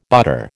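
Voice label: "butter", American English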